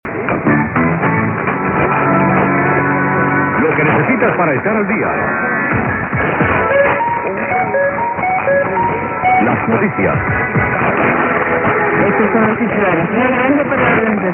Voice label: news; 670 radio rebelde cuba

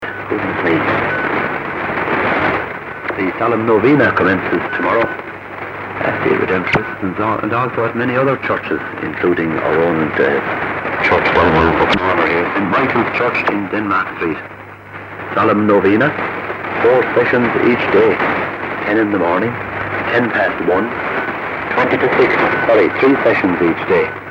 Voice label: radio luimni 2250khz; rec scotland

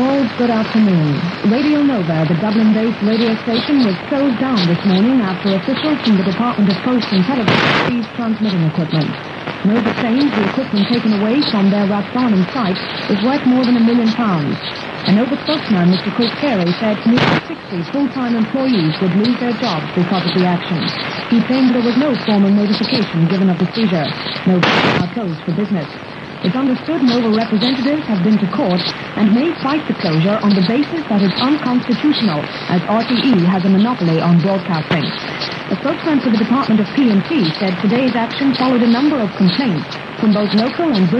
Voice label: raids south coast news; irish

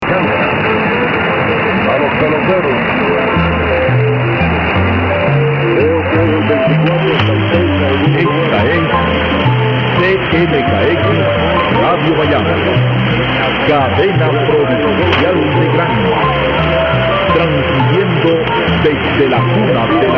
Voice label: radio; radio bayamo cuba

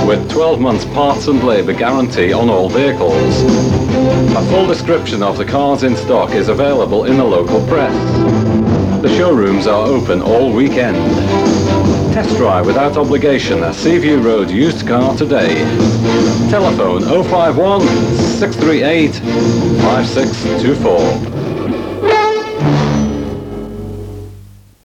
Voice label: advert, car sales; Merseyland Alternative Radio; Seaview Road Car Sales

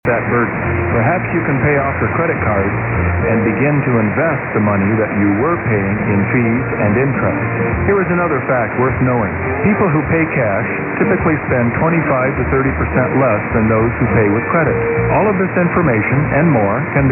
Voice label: 1300, mw dxing; wood

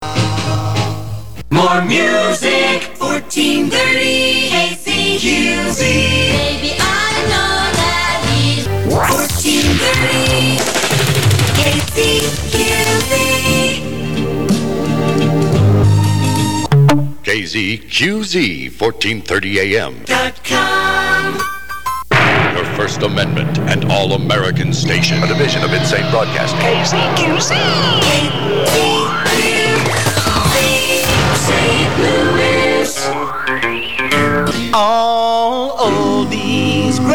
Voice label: a few voice overs and jingles; kzqz

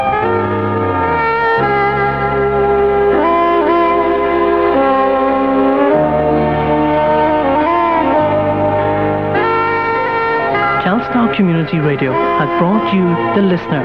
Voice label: closedown promo; telstar 1197am

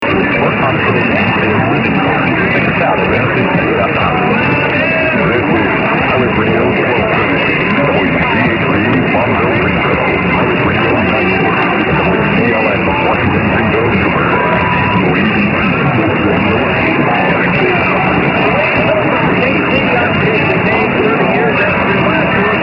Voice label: pirate radio; wdlx washington nc

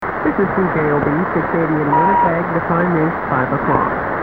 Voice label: 680, mw dxing; CJOB; Winnipeg

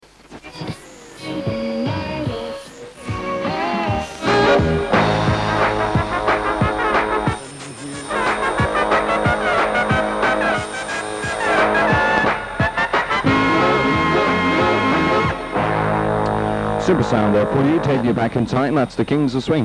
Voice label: aircheck during bandscan; westside radio 1035am

